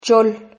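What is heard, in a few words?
"chol", Spanish syllable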